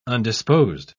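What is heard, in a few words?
"undisposed", British English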